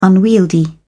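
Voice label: "unwieldy", English, British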